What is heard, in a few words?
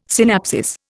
"synapsis", Indian